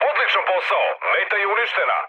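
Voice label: Serbian bomb success mood med, speech; War Thunder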